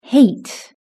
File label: "hate", british english